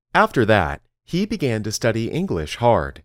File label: Dictation